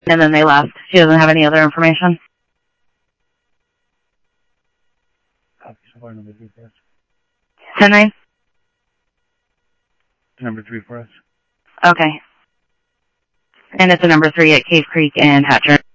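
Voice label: police department, scanner audio; PhoenixPD600Dese; ThompsonPeakHigh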